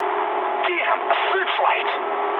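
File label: English searchlite mood high; speech; War Thunder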